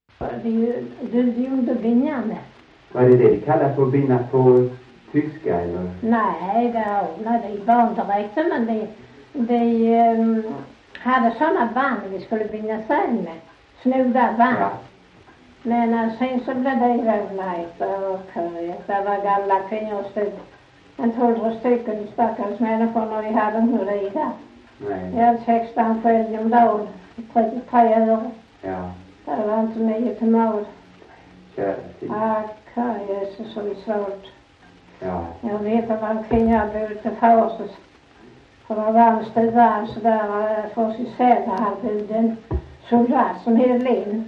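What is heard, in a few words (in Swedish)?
Sövde Skåne, dialekter svenska sagor; Folkminnen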